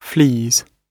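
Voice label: "flees", British English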